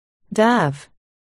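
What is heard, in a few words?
"dove", spoken word, articulation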